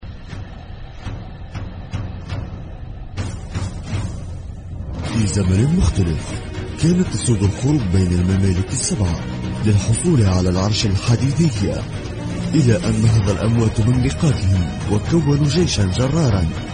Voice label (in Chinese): 阿拉伯语男 外语 小语种 大气